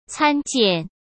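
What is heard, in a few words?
"参见", Mandarin; chinese-flashcards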